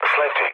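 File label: Serbian bailout mood low, speech; War Thunder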